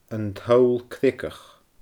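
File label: "An Toll Creagach", Scottish Gaelic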